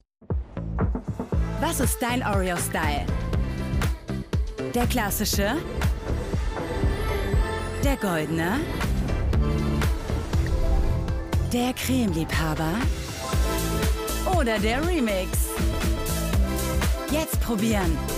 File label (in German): Werbespot VoiceOver; Oreo